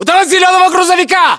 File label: landmark near truck green, ru